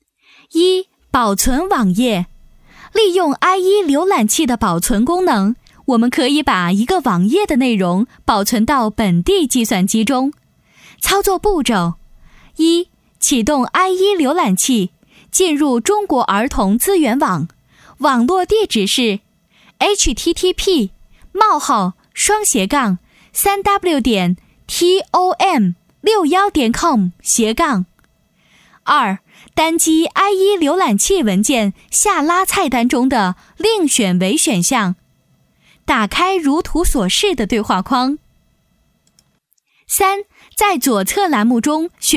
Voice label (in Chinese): 女 PPT课件 操作步骤